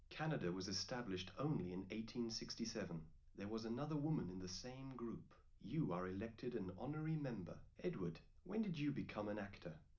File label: male4 liverec 250ms 5cm; SiSEC11; underdetermined